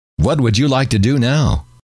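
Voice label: what Would You Like To Do Now, male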